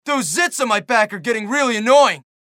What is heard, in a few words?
CHATTER; bully; Casey